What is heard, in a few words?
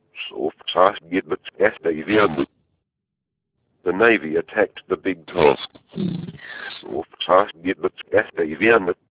male backwards; sweep